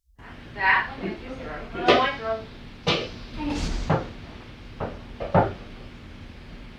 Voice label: Childs Voice Bouncing Ball